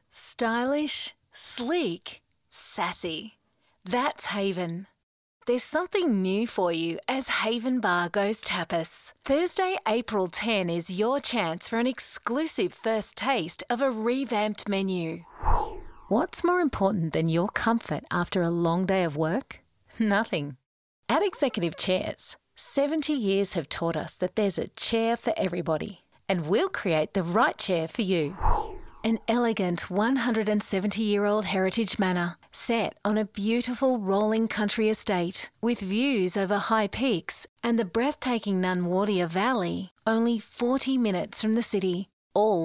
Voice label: Relaxed